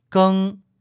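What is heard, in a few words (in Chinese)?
"geng", Mandarin Chinese, male; Mandarin pronunciation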